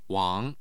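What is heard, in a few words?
"wang2", Mandarin Chinese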